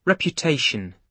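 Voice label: "reputation", British English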